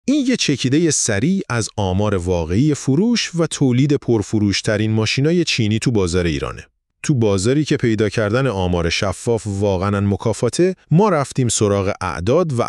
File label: best selling chinese cars podcast